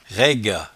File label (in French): "raigat", patois jura